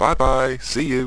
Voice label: chat

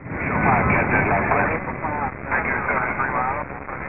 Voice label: Chatter; t2029

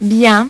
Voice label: "bien", French Pronunciation; HyperSound™2

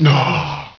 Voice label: wizard pain; butthead

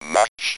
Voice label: "much", Speak & Spell UK Speech

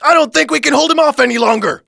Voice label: panic, thug; SINDEMO; bank

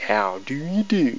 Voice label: male hello; Foundation

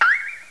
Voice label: fast hey; Panasonic; funcity; paris

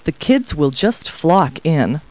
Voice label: people, kid

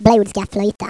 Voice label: threat; Swedish

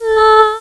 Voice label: Solfege, Claire's Voice; Opcode Systems

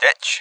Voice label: "ditch", speech; RallyC

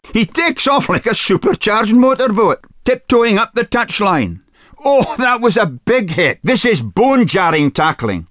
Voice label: comm test, ENGLISH; ea rugby 2001; front end sfx

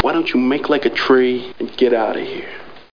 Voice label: threat; worms; amiga

